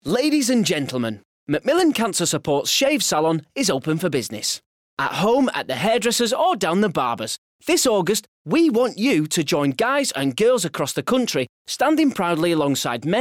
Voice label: Radio; L Gents